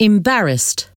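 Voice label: "embarrassed", English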